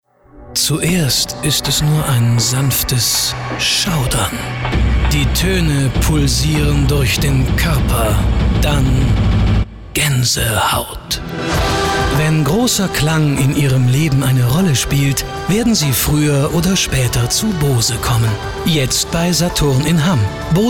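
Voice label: female, gentle; Fremdtake; Sanft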